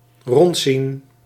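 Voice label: "rondzien", Dutch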